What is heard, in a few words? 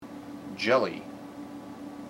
"jelly", IPA